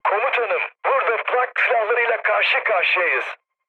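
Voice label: damaged aa, mood med, Turkish; War Thunder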